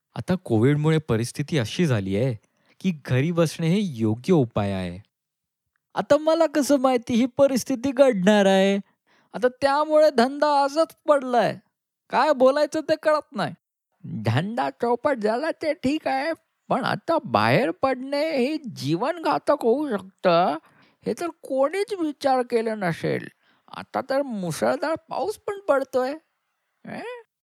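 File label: Marathi Male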